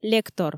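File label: "лектор", Komi, female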